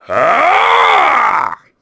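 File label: Attack, Japanese; voices; heroes; Kaulah; kingsraid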